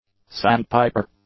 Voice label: "sandpiper", English; nouns